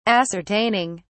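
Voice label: "ascertaining", British English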